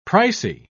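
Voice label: "pricy", British English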